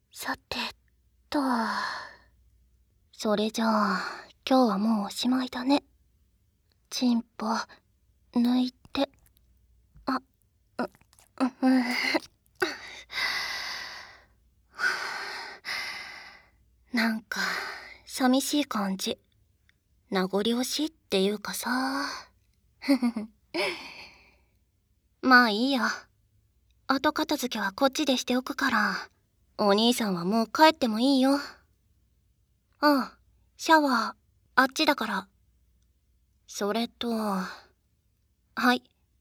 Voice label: お別れの挨拶, ASMR

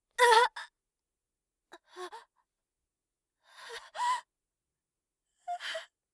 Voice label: 刺され, ASMR; 効果音なし

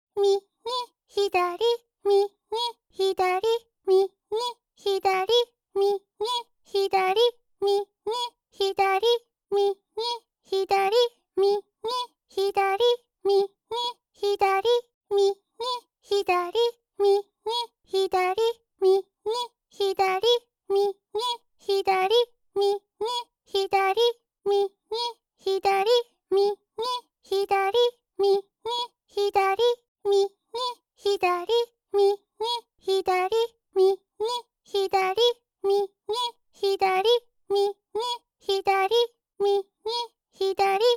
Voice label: 左右ボイス

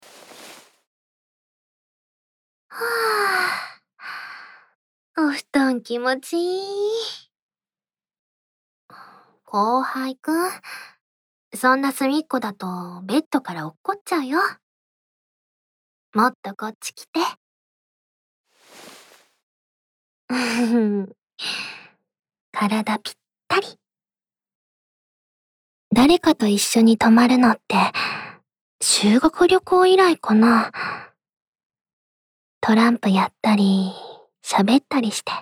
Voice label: ピロートーク, ASMR

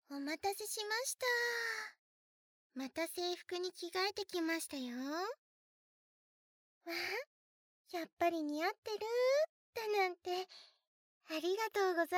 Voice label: BGM効果音なし

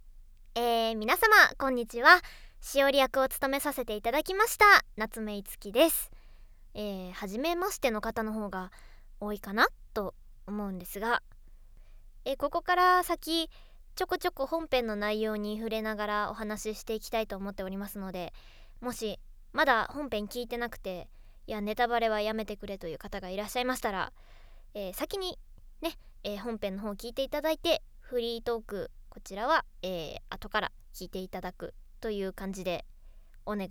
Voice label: フリートーク; 図書室お化けの恋物語 -あなたと綴る1ページ

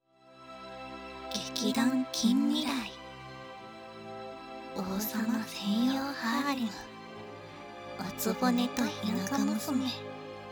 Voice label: タイトルコール; あなたしか入れない理想の王様専用ハーレム～お局と田舎娘～

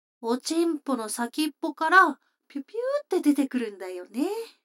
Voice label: 女の子 台詞

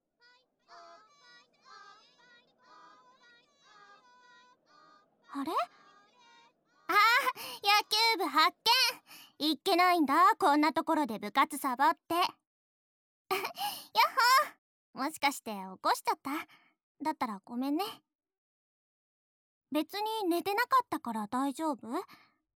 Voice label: 囁き, ＳＥ差分あり; 体育館の裏で――柚希の応援を囁いて貰いながら……。; 手コキ

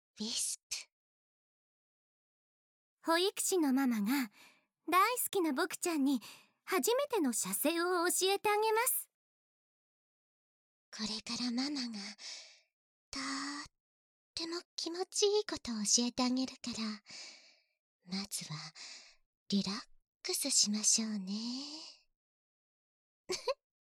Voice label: タイトルコール

